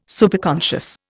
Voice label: "Superconscious", Indian

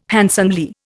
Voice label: "handsomely", Indian

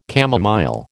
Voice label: "chamomile", American

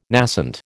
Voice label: "nascent", American

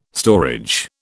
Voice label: "storage", British

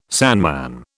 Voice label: "sandman", British

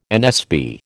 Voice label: "NSB", American

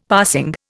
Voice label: "passing", Indian; Dictionary

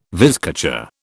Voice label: "vizcacha", British

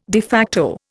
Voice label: "de facto", Indian

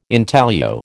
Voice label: "intaglio", American

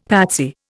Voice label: "patsy", Indian; Dictionary

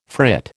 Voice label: "frit", American

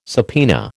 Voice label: "subpoena", American

